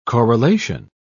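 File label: "corelation", British English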